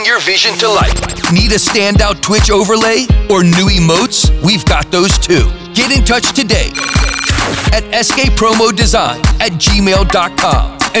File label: Promo Ad; SK Promo And Design